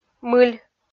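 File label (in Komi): "мыль", Komi, female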